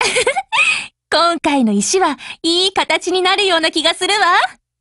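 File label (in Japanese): operation over, Japanese; MP443Mod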